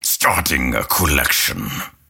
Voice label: lasthit pain; dota; grimstroke